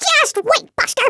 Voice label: "I'll get you", American; Worms Armageddon

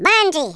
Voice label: "bungee", Russian; Worms Armageddon

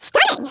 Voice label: "brilliant", American; Worms Armageddon

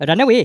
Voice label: "run away", The Raj; Worms Armageddon

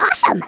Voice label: "Excellent", American; Speech; Worms Armageddon

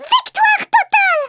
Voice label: "flawless", French; Speech; Worms Armageddon

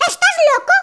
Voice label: "Stupid", Spanish; Worms Armageddon

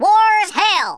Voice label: first blood, Drill Sergeant; Speech; Worms Armageddon

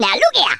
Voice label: "go away", Geezer; Worms Armageddon

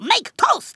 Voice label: "incoming", Kamikaze; Worms Armageddon

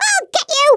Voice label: "just you wait", American; Worms Armageddon

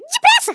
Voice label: "hurry", Portuguese; Worms Armageddon

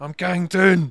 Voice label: "oh dear", Jock; Worms Armageddon

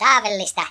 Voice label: "perfect", Finnish; Speech; Worms Armageddon

